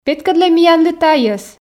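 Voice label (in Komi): петкӧдлӧй миянлы тайӧс, Komi, female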